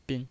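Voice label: "bin", Mandarin Chinese, neutral tone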